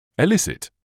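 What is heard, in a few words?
"elicit", British English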